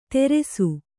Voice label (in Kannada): "ತೆರೆಸು", Kannada